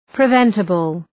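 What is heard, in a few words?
"preventable", English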